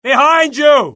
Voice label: alert, UK; mohaa; dialogue

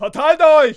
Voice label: fear, dialogue; mohaa

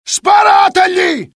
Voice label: alert, dialogue IT; mohaa; attack

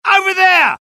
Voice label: alert, dialogue UK; mohaa

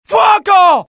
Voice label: alert, dialogue IT; mohaa; attack

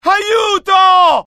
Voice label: fear, IT; mohaa; dialogue

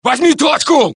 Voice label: Russian; mohaa

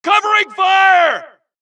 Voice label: supress, dialogue Allies; mohaa; Mission 2

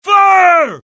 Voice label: alert, dialogue generic US; mohaa

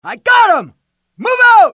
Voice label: suppress, dialogue, US; mohaa

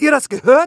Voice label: curious; mohaa; dialogue

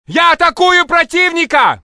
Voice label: Russian; mohaa; dialogue

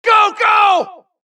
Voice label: supress, dialogue Allies; mohaa; Mission 2

